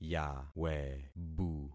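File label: "yawebu", concatenated syllables; Affixing experiment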